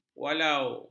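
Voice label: "walau", Malay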